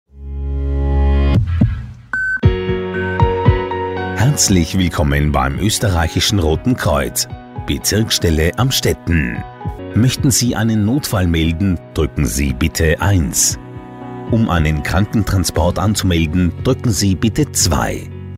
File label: german voice telephone